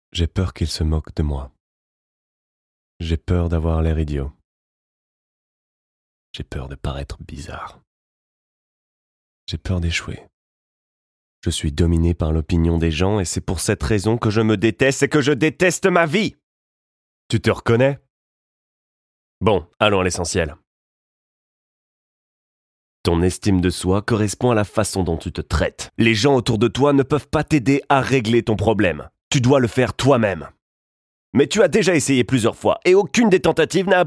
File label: french tv shows